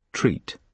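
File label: "treat", British English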